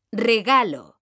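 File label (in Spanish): "regalo", female; mekanta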